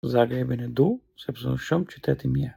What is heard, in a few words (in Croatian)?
albanski; POP UP Zagreb